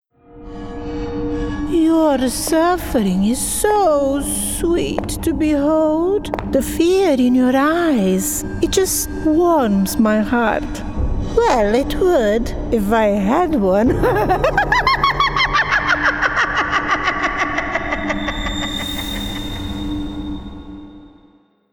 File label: English international animation